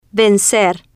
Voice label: "vencer" Spanish

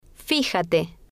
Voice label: "fijate", Spanish

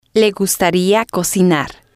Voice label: le gustaría cocinar, Spanish

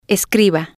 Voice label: escriba, Spanish

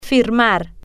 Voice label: "firmar", spanish

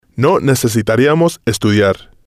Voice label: no necesitaríamos estudiar, Spanish

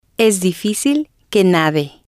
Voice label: es difícil que nade, Spanish

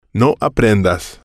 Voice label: no aprendas, Spanish

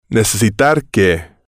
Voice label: necesitar que, Spanish

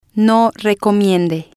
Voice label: no recomiende, Spanish

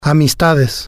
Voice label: "amistades", spanish

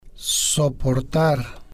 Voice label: "soportar", Spanish

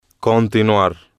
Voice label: "continuar", spanish

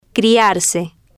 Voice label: "criarse" Spanish

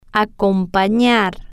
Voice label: "acompanar", Spanish

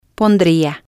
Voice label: pondría, Spanish

